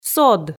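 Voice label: "сод", Komi, female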